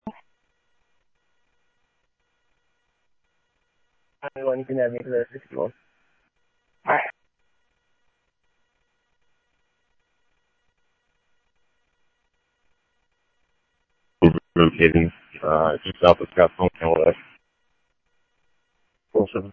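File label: police radio dispatch, Scottsdale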